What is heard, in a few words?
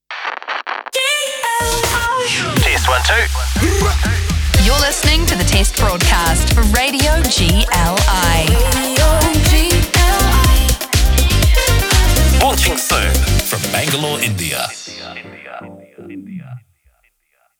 Imaging AI, New Zealand; Galaxy FM 107fm